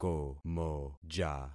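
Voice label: "komoja", concatenated syllables; Affixing experiment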